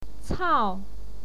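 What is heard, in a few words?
"cao", Mandarin Chinese, fourth tone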